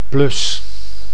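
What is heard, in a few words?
"plus", Dutch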